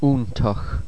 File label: "iontach", ireland language pronounciation; Monophtongs